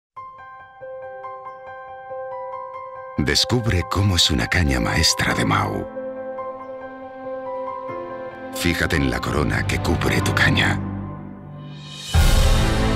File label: spanish spain male commercial